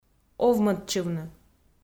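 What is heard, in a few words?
"овмӧдчывны", Komi, female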